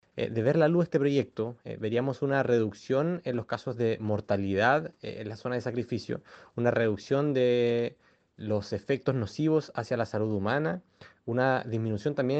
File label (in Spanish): cuña